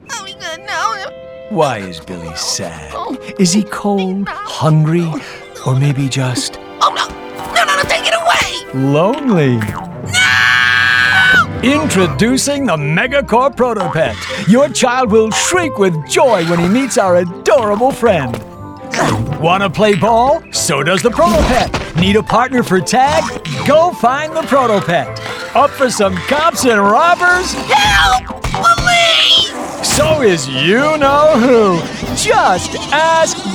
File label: Commercial; Protopet